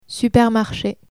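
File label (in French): "supermarche", French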